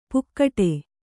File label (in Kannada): "ಪುಕ್ಕಟೆ", Kannada